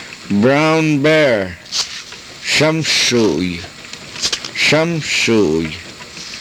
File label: "samsuuy", karuk